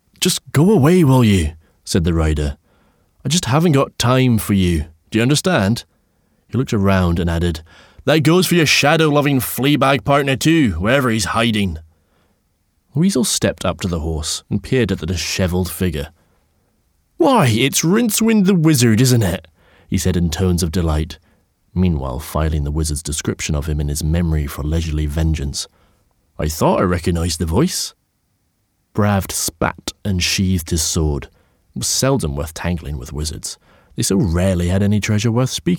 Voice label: Audiobook, Scottish neutral, Deep, Calming, Trustworthy, Reassuring, Warm, Comedy, Smooth